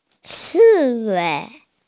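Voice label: "ci wei" (hedgehog), Mandarin Chinese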